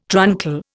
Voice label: "truncal", Indian